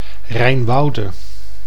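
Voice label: "Rijnwoude", Dutch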